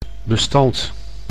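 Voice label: "bestand", Dutch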